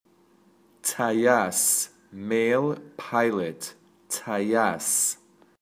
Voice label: Male Pilot